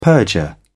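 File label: "perjure", British English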